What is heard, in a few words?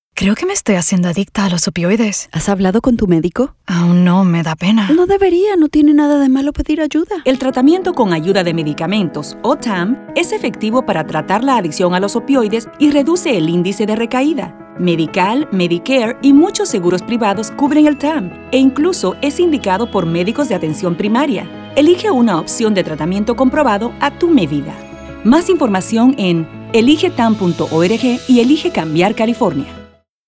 Radio ESP